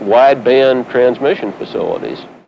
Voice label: LoFi Phrases, LoFi Vocals; Vengeance Samples